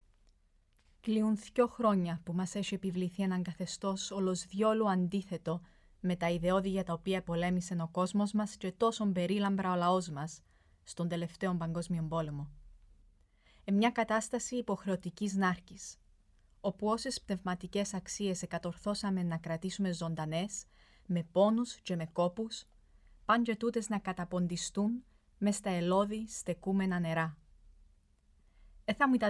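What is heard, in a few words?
Greek Cypriot General; GoLocalise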